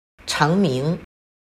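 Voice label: "成名", Chinese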